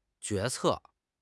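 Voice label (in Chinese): "决策", Chinese; HSK6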